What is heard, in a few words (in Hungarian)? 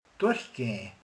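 "megszökik", Hungarian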